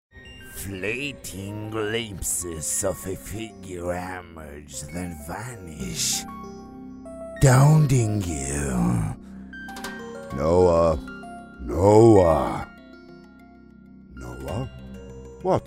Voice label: ENGLISH Game Characters

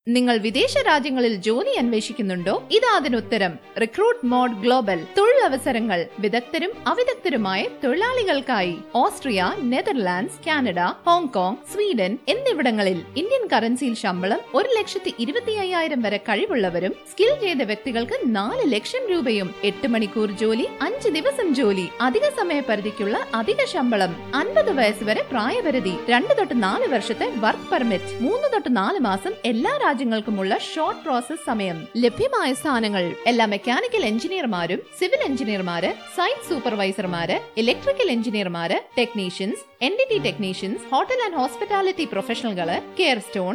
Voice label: TVC

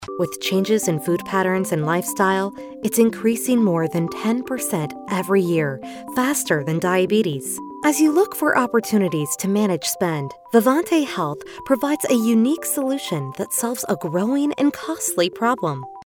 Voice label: Explainer; VivanteHealth

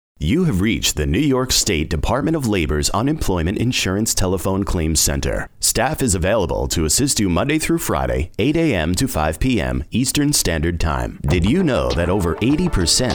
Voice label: Phone

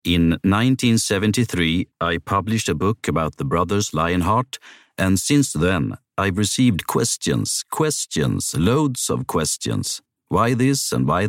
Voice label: English audioguide

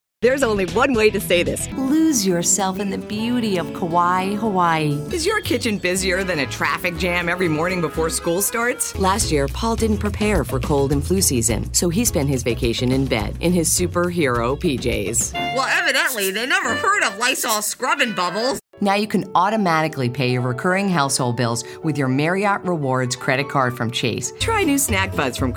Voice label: Commercial

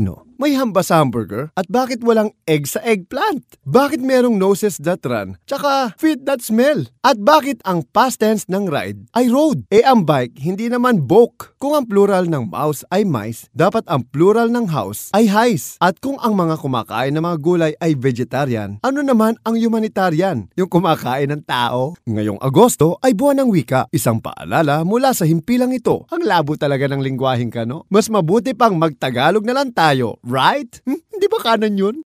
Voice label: Sardines Radio Ad; Ligo

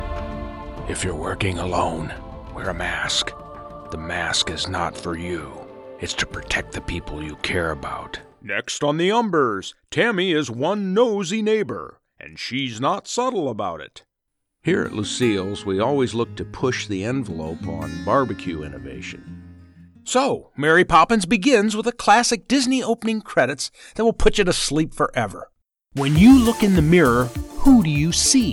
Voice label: promo wet

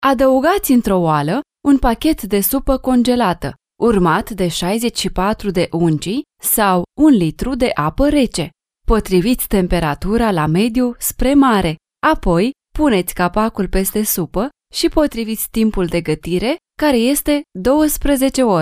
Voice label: Romanian

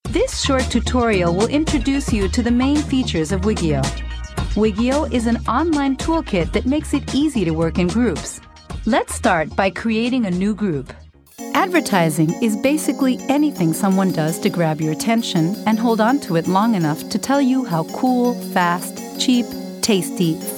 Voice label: E-Learning English

